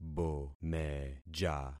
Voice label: "bomeja", concat syllables; Affixing experiment

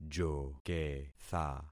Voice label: "joketha", concat syllables; Affixing experiment

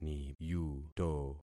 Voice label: "niyuto", concat syllables; Affixing experiment

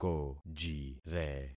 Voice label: "kojire", concat syllables; Affixing experiment

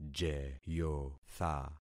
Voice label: "jeyotha", concat syllables; Affixing experiment

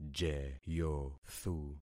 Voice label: "jeyothu", concat syllables; Affixing experiment

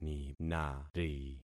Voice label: "ninari", concat syllables; Affixing experiment

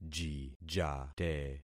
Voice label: "jijate", concat syllables; Affixing experiment

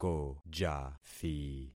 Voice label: "kojathi", concat syllables; Affixing experiment

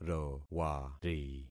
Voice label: "rowari", concat syllables; Affixing experiment

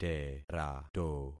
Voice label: "terato", concat syllables; Affixing experiment

